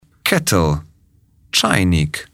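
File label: "kettle", English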